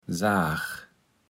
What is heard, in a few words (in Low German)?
"saach", Platt